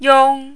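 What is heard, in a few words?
"yong1", mandarin, high level tone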